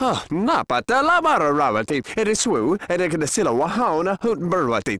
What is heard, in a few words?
male